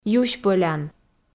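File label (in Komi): "юсьпӧлян" Komi, female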